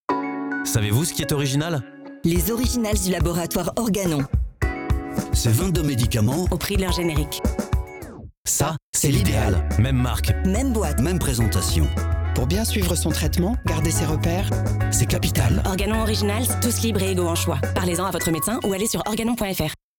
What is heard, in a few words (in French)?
SPOT RADIO; FR; ORGANON